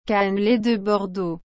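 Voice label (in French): "cannelé de Bordeaux", French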